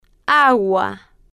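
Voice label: "agua", Spanish, slow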